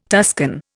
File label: "Tuscan", Indian